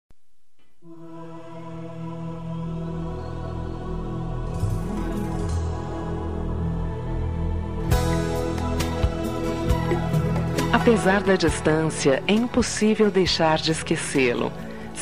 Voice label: Amizade Com Saudades de Amigo F

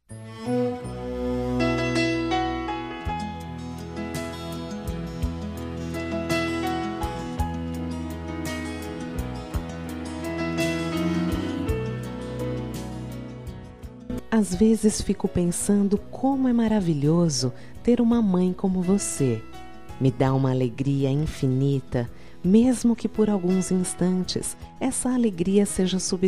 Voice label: Dia das Maes pra Mae Que Ta Distante, F

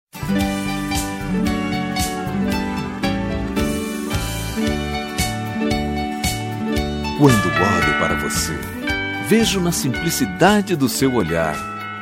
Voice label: Feliz Natal pra Avo, M

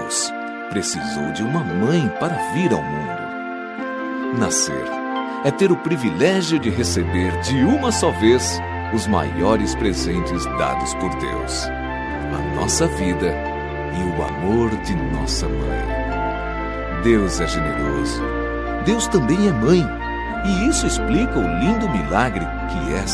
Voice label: Feliz Natal pra Mae, M

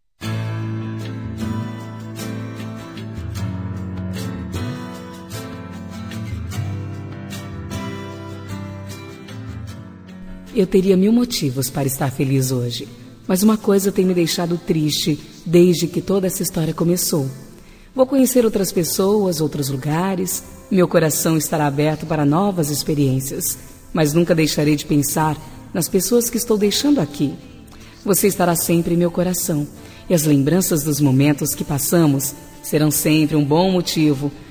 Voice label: Amizade Com Despedida pra Quem Fica, F

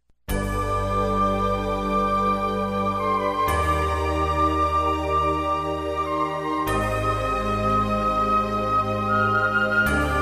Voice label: Dia das Maes de Consideracao, F

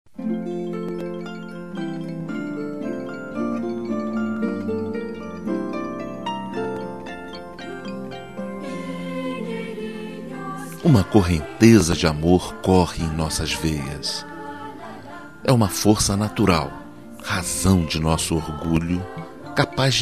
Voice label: Feliz Natal pra Irmao, M

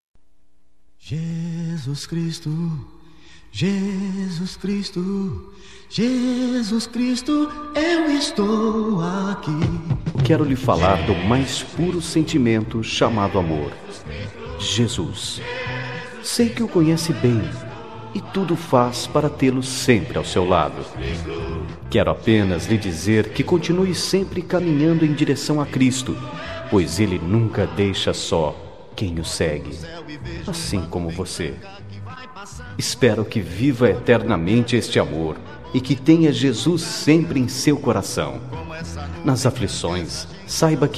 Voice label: Feliz Natal Religioso, M

